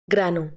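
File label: "grh2no", Proto-Indo-European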